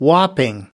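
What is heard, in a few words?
"whopping", US English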